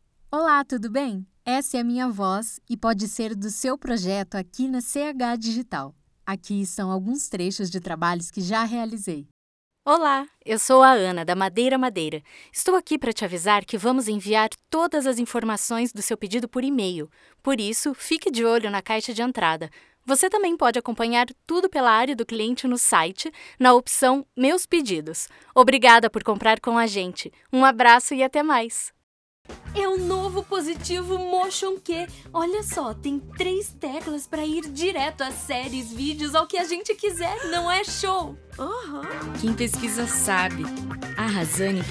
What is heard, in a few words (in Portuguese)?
Voz Feminina